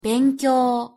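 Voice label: "benkyo" Japanese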